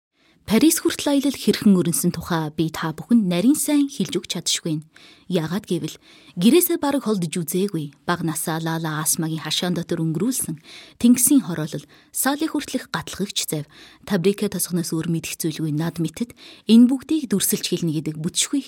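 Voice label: Mongolian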